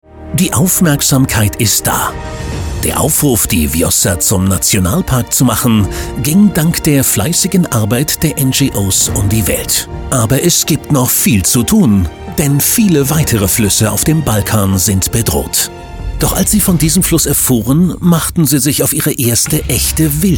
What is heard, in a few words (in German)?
magazin doku; viosa